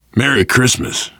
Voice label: alert give item, survivor voice biker; Left 4 Dead 2